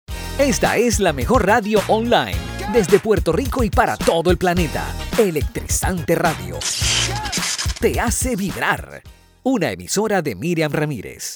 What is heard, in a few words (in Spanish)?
electrizante voz id